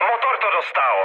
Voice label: damaged engine, speech cze, mood med; War Thunder